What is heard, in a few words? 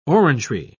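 "orangery", English, British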